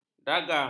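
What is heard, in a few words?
"dagang", Malay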